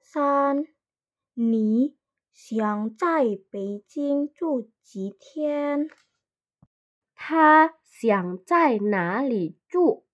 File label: Mandarin; mandarin placement test hsk1